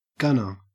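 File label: "gunner", Australian English; pronunciations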